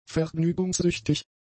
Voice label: "vergnügungssüchtig", German; adjectives